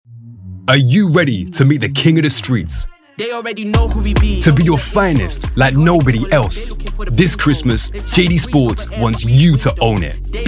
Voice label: Diverse London